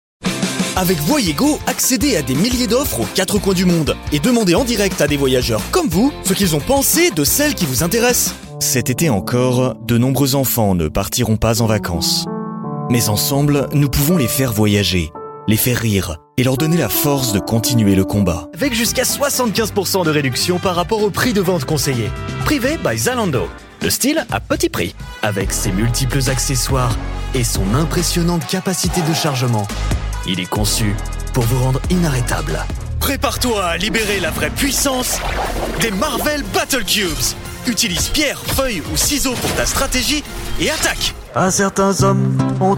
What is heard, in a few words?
showreel commercials fr